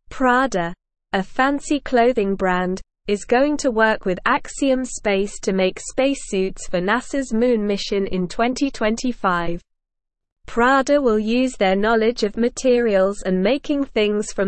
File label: Lower Intermediate SLOW Reading, English; Prada Helps Make Space Suits for Moon Trip; English Newsroom